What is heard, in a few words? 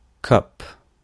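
"cup", English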